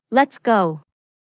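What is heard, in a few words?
Lets go, English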